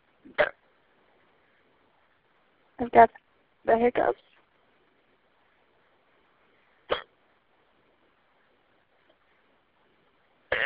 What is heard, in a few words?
phone msg